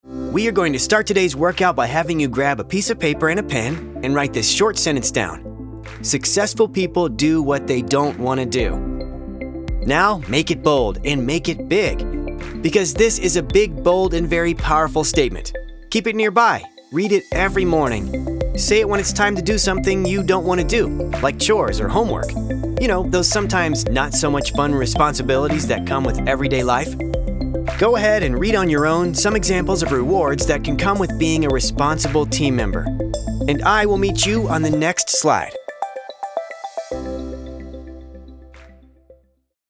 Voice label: english american e-learning, american voice actors